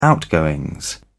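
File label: "outgoings", British English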